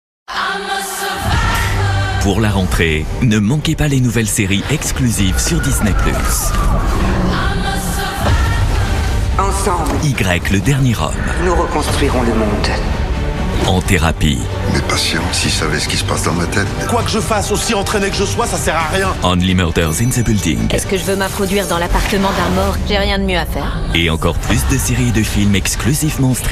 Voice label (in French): evenement promo, voix off publicité; DISNEY+